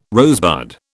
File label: "rosebud", British